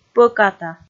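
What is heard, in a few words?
"пӧката", Komi, female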